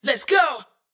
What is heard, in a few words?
adlib Lets Go wet, Raw Vocals; Adlibs